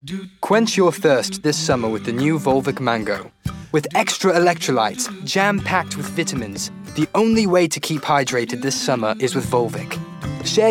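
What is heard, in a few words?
Voicetape, Received Pronunciation; Volvic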